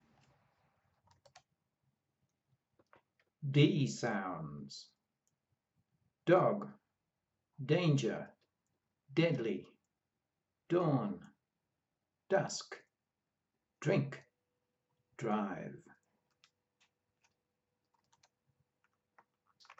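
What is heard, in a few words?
D sounds, pronunciation of australian english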